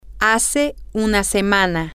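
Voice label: one week ago, Spanish, female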